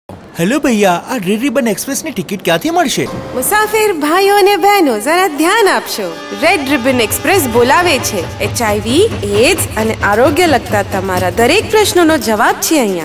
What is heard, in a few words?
Radio Gujarati